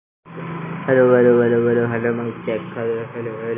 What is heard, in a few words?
Voice Note